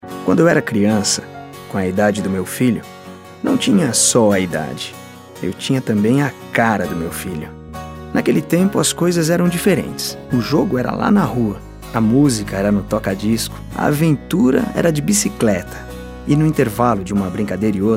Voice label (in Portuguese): Emocional; Toddynho